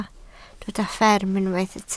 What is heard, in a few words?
"fferm", Welsh